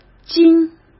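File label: "筋", Mandarin Chinese; chinese-flashcards; hsk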